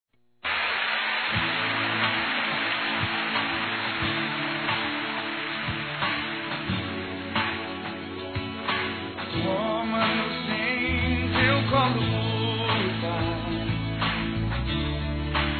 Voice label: Aniversário Filho Evangelico Masculino

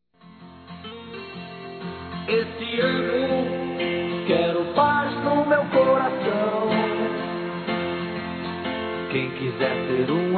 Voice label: ANO NOVO NEUTRO M; Telemensagens; Natal e Ano novo; masc

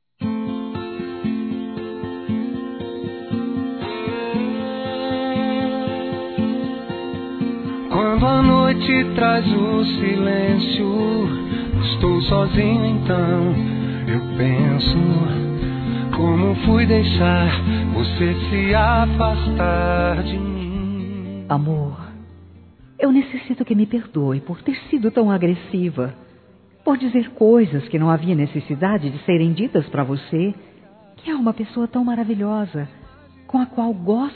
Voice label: Perdão Romântico Feminino; Reconciliação

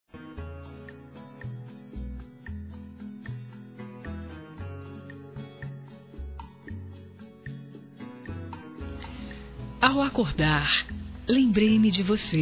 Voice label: GERAL F; Telemensagens 2017

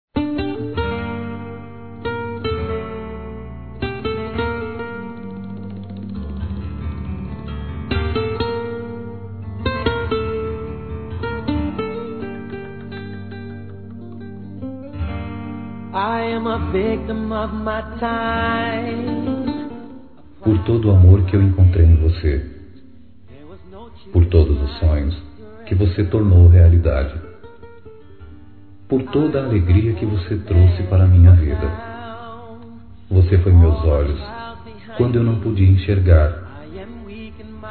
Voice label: Masculino, Telemensagens; Dia Dos namorados; Volta Para Mim; Telemensagens 2017

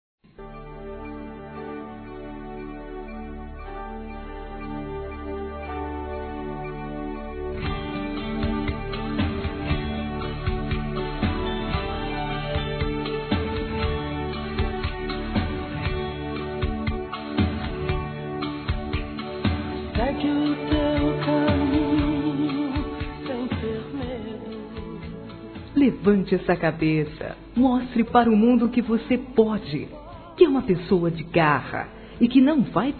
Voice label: LEVANTAR ASTRAL, fem; Telemensagens; Telemensagens 2017

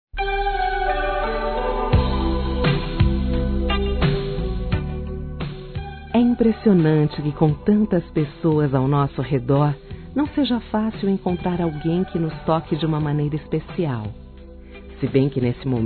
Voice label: Romantica Aniversário Que Fica Feminino, Telemensagens; Românticas

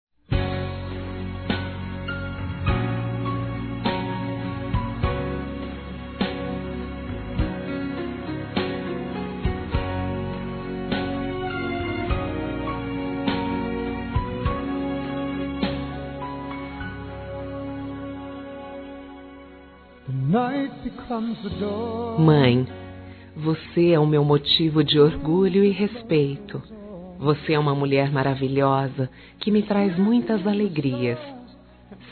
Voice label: FILHA F, Telemensagens Dia das Mães Fem; Telemensagens 2017

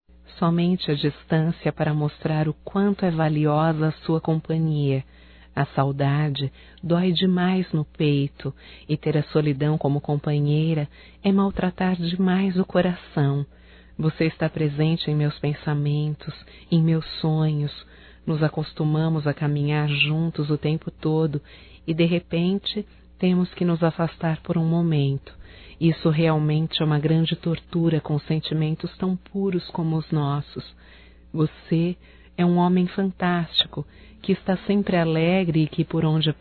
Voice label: SAUDADES ROMANTICA, Telemensagens, Só Voz, fem

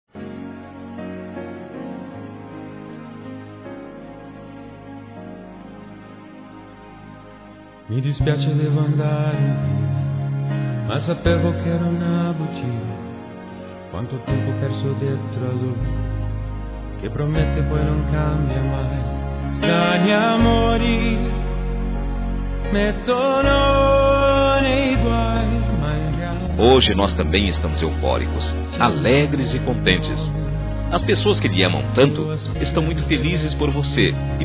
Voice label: Homenagem Irmã Masculino Plural, Telemensagens; Homenagens

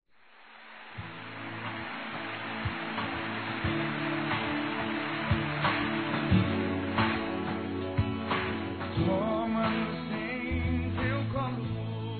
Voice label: Geral Masculino; Dia das Mães; Telemensagens 2017

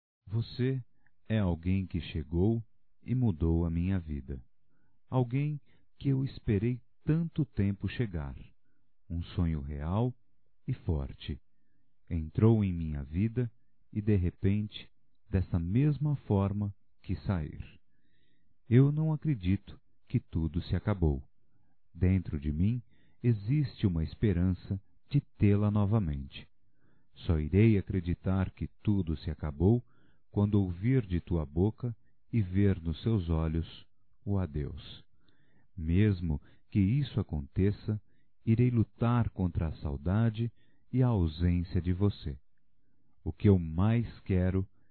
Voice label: Fim de Namoro Masculino, Só Voz; Telemensagens; Telemensagens 2017

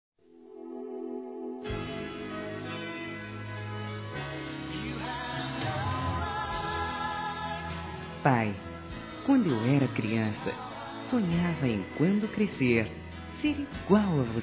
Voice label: SONHO DE CRIANCA (F), Telemensagens, Dia dos Pais, fem; Telemensagens 2017

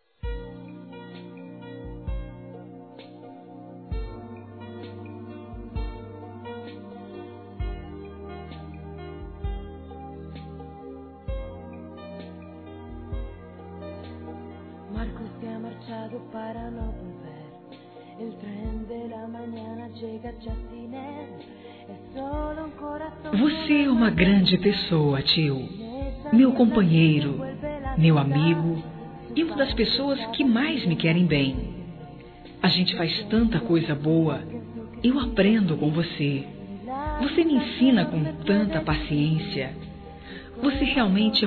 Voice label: TIO, Telemensagens Aniversários; Aniv Familiar

